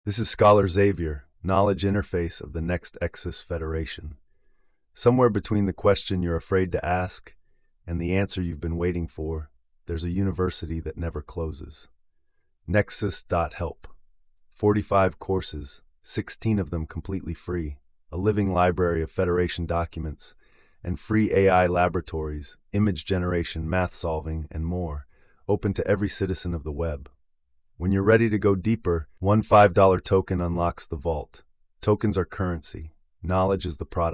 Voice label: radio spot